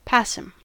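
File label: "passim", US English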